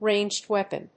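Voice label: "ranged weapon", English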